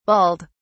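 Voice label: "balled", British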